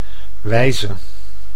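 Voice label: "wijze", Dutch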